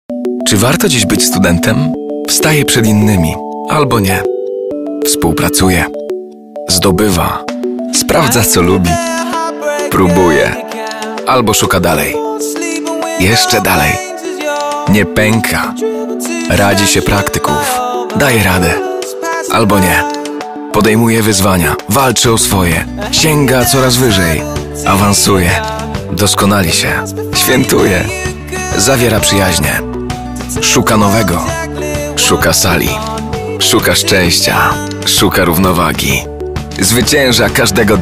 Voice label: Commercial